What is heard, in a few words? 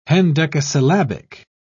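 "hendecasyllabic", British English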